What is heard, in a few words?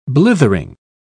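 "blithering", British English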